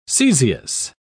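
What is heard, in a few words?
"caesious", British English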